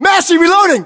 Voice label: inform reloading, battlechatter, English, US